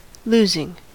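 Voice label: "losing", US English; pronunciations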